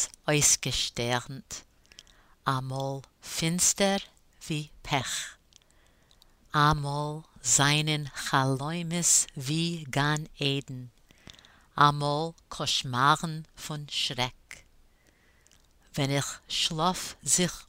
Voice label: Yiddish pronunciation